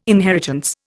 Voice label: "inheritance", Indian

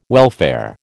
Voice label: "welfare", American

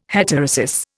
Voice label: "heterosis", Indian

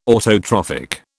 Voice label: "autotrophic", British

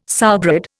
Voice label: "sowbread", Indian

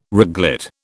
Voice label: "reglet", British

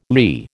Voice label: "lee", American

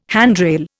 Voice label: "handrail", Indian

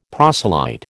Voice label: "proselyte", American

